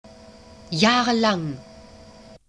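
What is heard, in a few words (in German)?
"jahrelang", German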